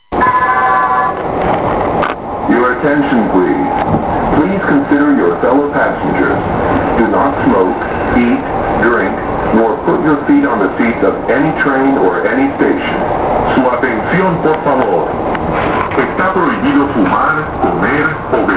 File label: announcment; Metro Green Line PAs; Aviation; Mariposa; Nash; El Segundo; Douglas; Rosecrans; Marine; Redondo